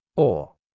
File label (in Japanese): イギリス英語ɔːのみ